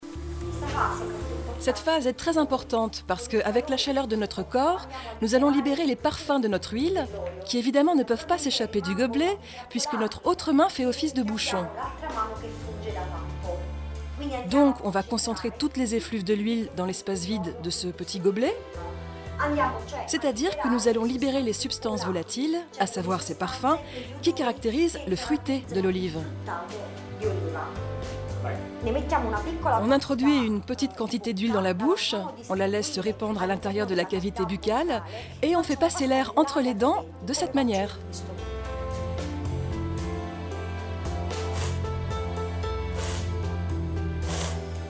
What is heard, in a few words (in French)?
voice over naturel parlé explicatif; ARTE; La route des oliviers